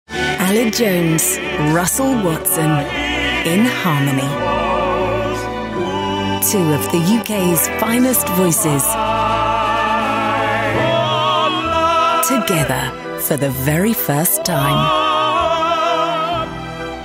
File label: Music Commercials; reels